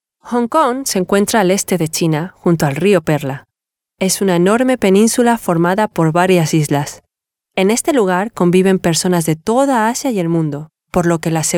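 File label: Spanish female corporate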